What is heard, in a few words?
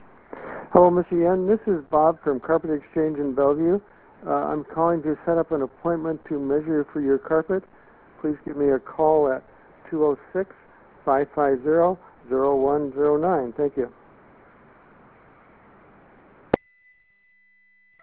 voicemail